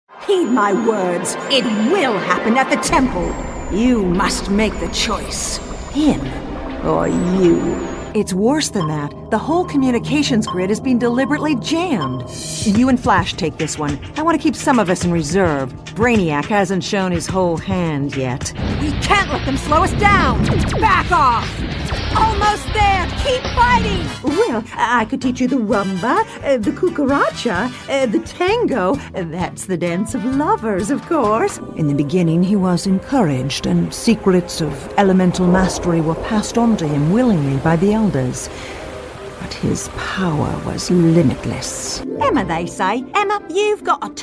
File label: Character, animation